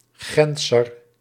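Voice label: "Gentser", Dutch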